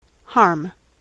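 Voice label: "harm", US English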